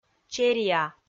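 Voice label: "чериа", Komi, female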